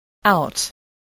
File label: "out", English voice